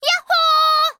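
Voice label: story, Japanese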